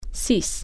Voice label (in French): "six", French